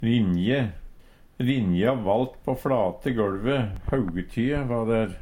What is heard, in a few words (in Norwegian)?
rinje, Numedalsmål; Rinja valt på fLate gøLve. Haugetye va der.; Hushald, mat, drikke